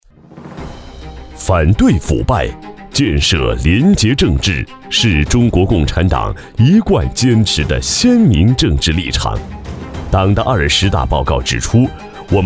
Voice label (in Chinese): 男 廉政 警示片; 反腐样音